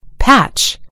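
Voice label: "patch", British English; gcompris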